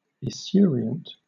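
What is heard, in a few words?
"esurient", British English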